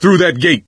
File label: misc directional, coach, survivor voice; source engine; left 4 dead 2